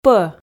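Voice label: "пӧ", Komi, female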